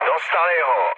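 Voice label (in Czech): Czech aircraft destroyed, mood medium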